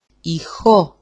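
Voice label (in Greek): "ixo", Greek; ηχώ; echo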